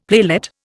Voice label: "playlet", Indian; Dictionary